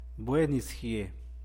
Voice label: "bue'e nisgie", San Mateo Macuilxochitl Zapotec; temporada de lluvia

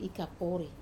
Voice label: "kapori", to tie up, Wamesa

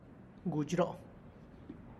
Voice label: wet soil, Tlacochahuaya Zapotec; gutxro'

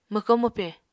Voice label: "mwko-mwpe", Apatani

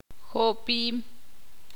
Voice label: ixil; empujar

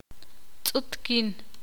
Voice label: ixil; agudo

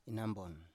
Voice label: "inanbon", Biak

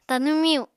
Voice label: "Tanw miyu", apatani

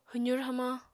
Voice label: "hwzur hamañ", Apatani

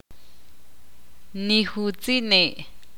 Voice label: ixil

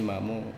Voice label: "mamo", wamesa

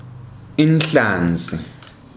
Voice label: "inhlanzi" fish, ndebele

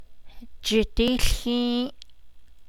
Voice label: melt it 4th person, Navajo

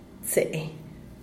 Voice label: "trece", "tsee"

